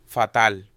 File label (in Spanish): "Fatal", espanhol